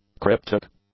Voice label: "cryptic", English; adjectives

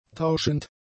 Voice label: "tauschend", German; adjectives

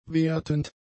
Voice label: "wertend", German; adjectives

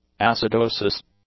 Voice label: "acidosis", English

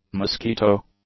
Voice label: "mosquito", English; nouns

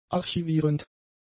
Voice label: "archivierend", German; adjectives

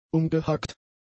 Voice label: "umgehackt", German; adjectives

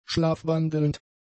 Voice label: "schlafwandelnd", German; adjectives

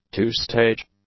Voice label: "two-stage", English; adjectives

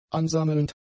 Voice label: "ansammelnd", German; adjectives

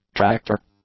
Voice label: "tractor", English; nouns

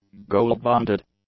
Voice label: "gold-bonded", english; adjectives

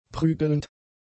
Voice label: "prügelnd", German; adjectives

